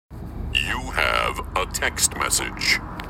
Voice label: Sports announcer